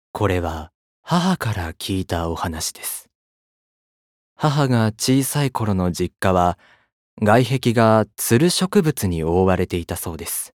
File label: Narration Kaidan